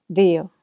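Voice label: "dio", Ancient Greek; δύο dío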